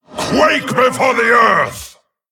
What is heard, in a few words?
Male Tolvir Attack